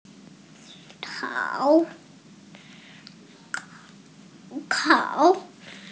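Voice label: "kao3", Mandarin Chinese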